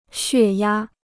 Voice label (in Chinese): "血压", Chinese